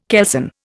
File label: "kelson", Indian